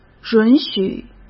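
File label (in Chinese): "允许", Mandarin Chinese; chinese-flashcards; hsk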